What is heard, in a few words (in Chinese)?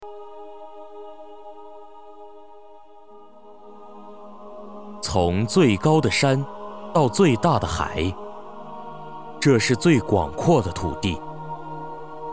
配音